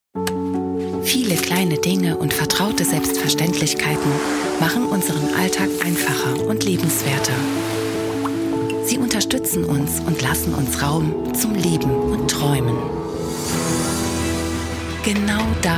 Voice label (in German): Kampagnenfilm Kino Spot, Sprecherin; Stadtwerke I Kampagnenfilm I Kinospot